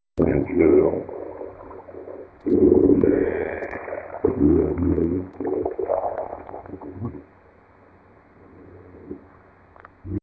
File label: A man is speaking under the water